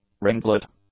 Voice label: "ringlet", English